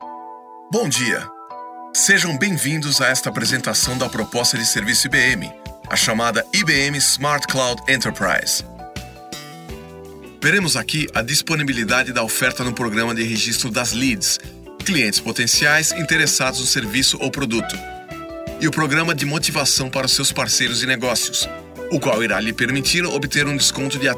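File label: Brazilian Portuguese, Corporate; GoLocalise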